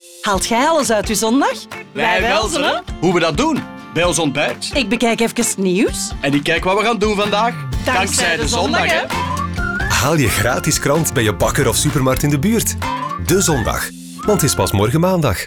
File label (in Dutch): radiospot; de zondag